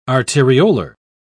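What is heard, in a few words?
"arteriolar", English, British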